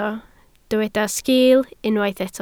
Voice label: "sgiil", Welsh